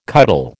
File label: "cuddle", American